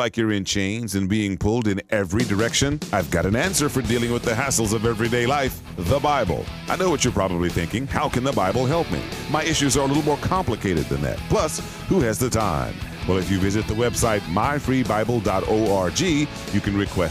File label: radio hassled